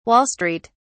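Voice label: "wall street", British English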